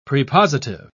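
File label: "prepositive", British English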